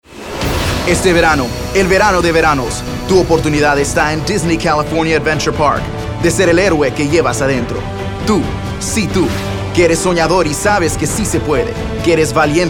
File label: Spanish; Superheros